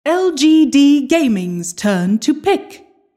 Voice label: announcer team pick